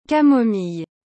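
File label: "camomille", French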